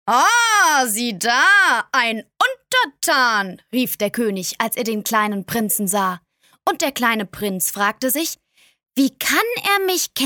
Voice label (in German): Erzählung mit Dialog